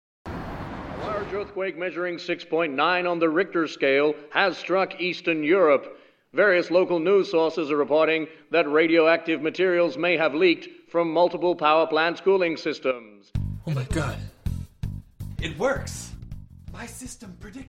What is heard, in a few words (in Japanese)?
ニュースキャスター